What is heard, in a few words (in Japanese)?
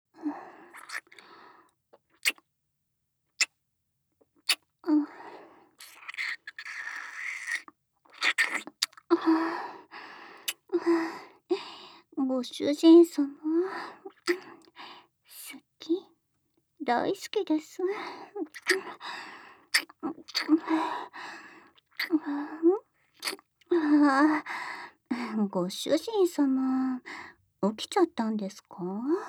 媚び媚び目覚ましボイス, ASMR; ミカ